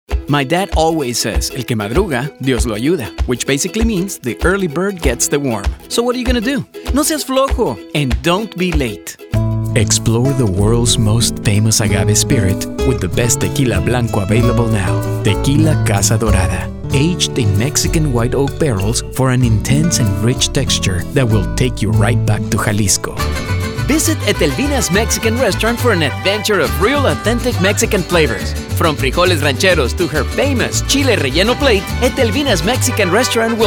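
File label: Commercial English Spanish, Male Voice-Over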